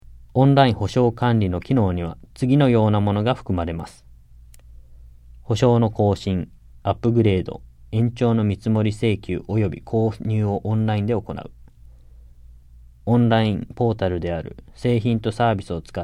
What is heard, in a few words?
JAPANESE; delltranslations